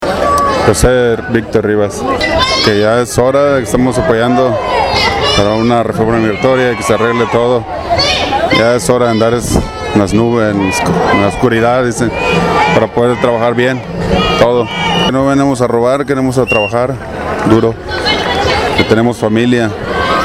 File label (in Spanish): Entrevista Marcha